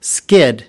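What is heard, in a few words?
"skid", US English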